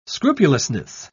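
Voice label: "scrupulousness", English, British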